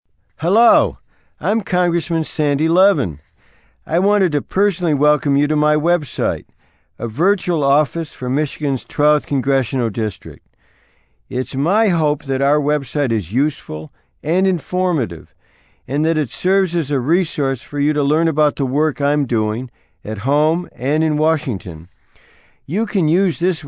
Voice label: Web Greeting